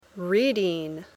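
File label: "reading", English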